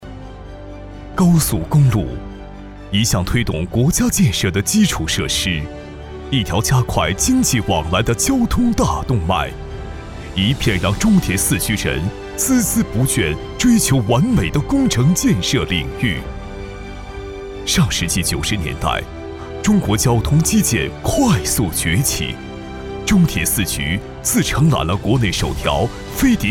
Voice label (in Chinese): 男 专题片 温暖大气